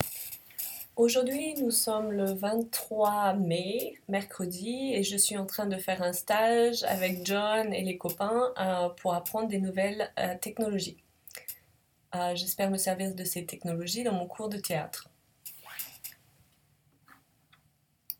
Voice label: French Test